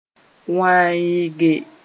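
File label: "wãeege", Mooré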